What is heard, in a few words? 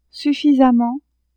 "suffisamment", French; adverbs